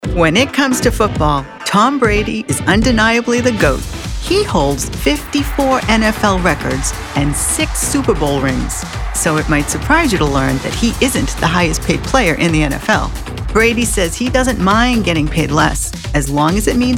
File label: Narration